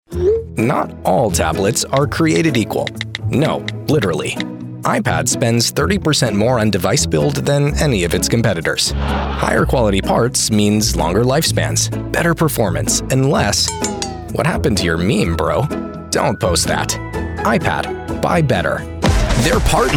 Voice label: commercial